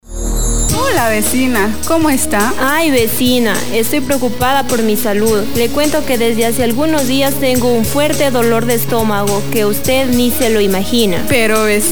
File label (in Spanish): cuña; hospital universitario